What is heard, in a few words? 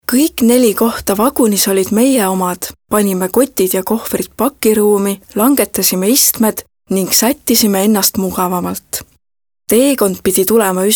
EST female, Casual